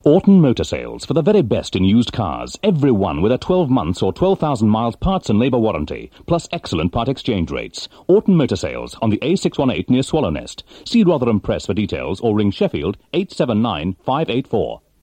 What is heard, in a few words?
Radio Advert; Radio Hallam; Orton Motor Sales